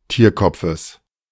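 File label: "Tierkopfes", German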